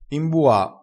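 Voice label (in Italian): "imbua", crespinese dialettale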